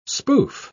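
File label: "spoof", English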